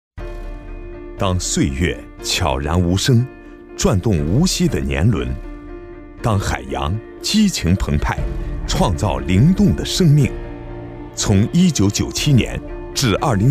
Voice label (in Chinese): 男 企业宣传; 海创